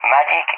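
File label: "Magic", English; CallSign; DCS; Allied Flight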